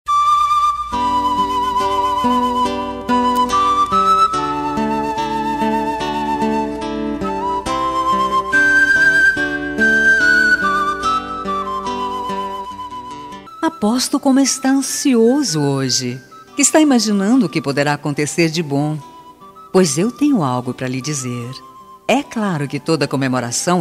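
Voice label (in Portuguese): birthday SOBRINHO female, telemensagem; ANIV SOBRINHO FEM; aniversario sobrinha sobrinho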